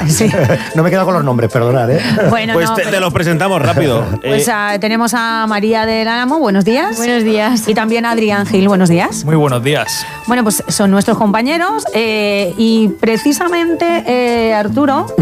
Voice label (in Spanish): entrevista radio; zonas aparcamiento; radio sirena COPE